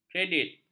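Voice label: "kredit", Malay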